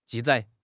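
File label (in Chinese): "记载", Mandarin Chinese; HSK6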